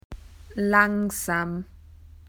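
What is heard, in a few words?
"slow", Australian English; PictureDictionary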